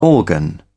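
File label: "organ", British English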